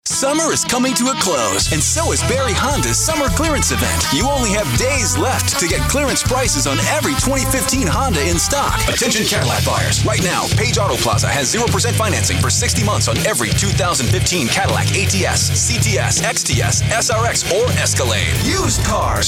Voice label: english american others